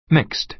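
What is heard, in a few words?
"mixed", American English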